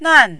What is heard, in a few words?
"nan4", mandarin